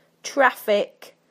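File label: "traffic", British English; PictureDictionary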